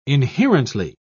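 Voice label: "inherently", British English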